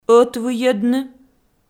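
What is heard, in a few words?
"ӧтвыйӧдны" Komi, female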